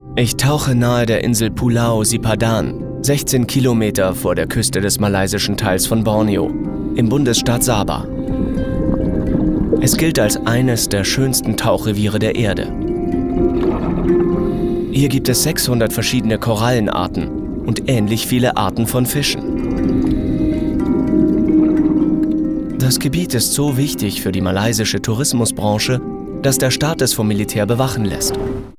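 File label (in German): Kommentar, Sprecher, Männer; Unreported World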